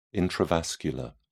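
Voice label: "intravascular", English, uk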